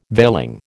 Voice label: "veiling", American

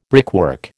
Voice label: "brickwork", American

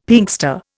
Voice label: "Pinkster", Indian; Dictionary

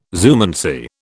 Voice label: "zoomancy", British

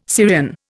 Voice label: "Syrian", Indian

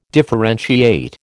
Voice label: "differentiate", American

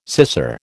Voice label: "scissor", American

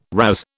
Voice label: "razz", British

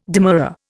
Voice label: "demurrer", Indian

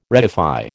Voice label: "reify", American

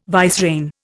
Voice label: "vicereine", Indian

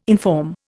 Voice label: "inform", Indian

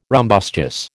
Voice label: "rumbustious", American

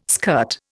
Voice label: "skirt", Indian

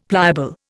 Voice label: "pliable", Indian; Dictionary

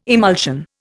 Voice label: "emulsion", Indian

